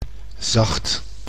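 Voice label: "zacht", Dutch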